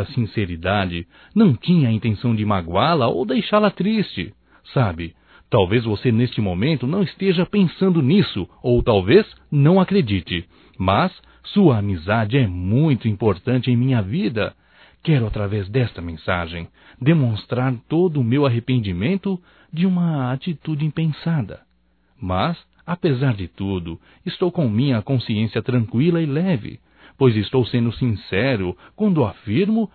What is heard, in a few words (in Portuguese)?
Desculpas Amiga Masculino; Telemensagens 2017; Otimismo